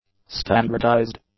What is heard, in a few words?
"standardised", English; adjectives